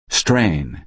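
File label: "strain", American English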